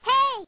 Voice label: "hey", kid objecting